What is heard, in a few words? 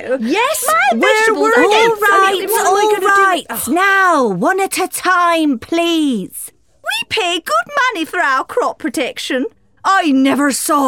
Animation Reel